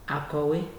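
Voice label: "akowe", Nigerian Pidgin, male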